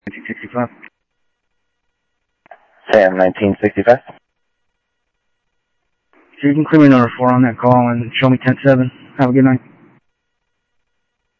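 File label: Sheriff West County, scanner audio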